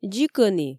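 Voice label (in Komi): дзик ӧні, Komi, female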